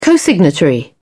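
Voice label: "cosignatory", British English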